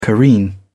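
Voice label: "careen", British English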